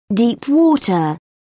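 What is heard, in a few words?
"deep-water", British English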